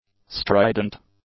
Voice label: "strident", English; adjectives